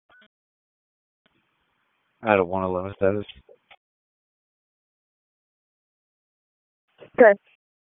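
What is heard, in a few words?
Police Southwest District Dispatch; Raleigh; wake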